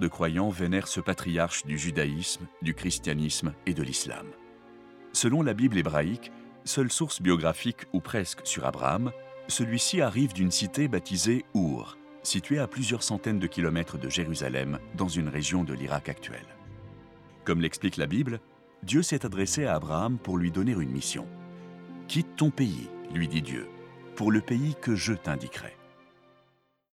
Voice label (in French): narration histoire Jerusalem